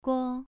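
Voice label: "guo", Mandarin Chinese, second tone, pronunciation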